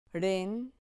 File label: "ren", Spanish syllable